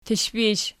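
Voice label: "tishpish" nominative, Archi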